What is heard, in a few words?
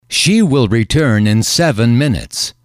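"she will return in seven minutes", English, male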